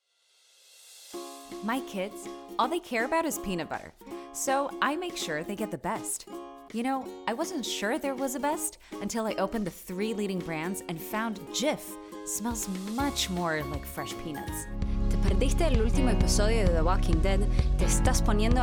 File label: Voice Acting Showreel, american